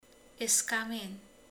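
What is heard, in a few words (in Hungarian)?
"szék", Hungarian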